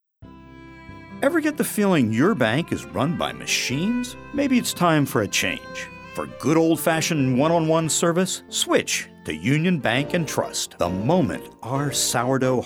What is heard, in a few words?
Commercial Demo